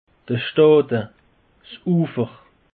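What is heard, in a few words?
"stade", Alsatian; peche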